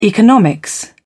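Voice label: "economics", British English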